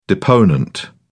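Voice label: "deponent", English, British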